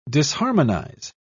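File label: "disharmonize", British English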